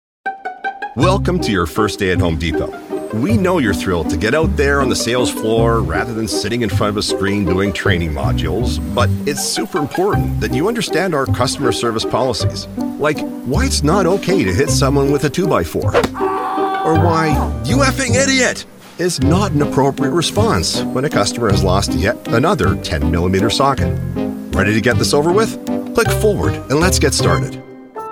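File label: American English, E-Learning; voice demos; GoLocalise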